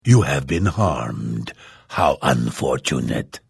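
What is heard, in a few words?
Hello, Vo; The Elder Scrolls III - Morrowind; Morrowind GOTY GOG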